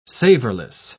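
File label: "savorless", English, British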